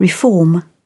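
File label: "reform", British English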